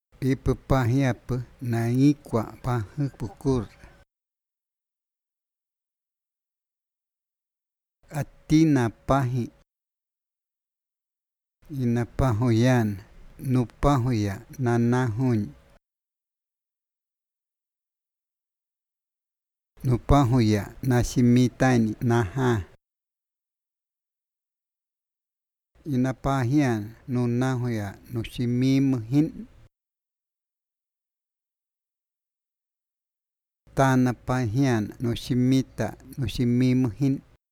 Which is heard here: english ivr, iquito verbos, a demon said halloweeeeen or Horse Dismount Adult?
iquito verbos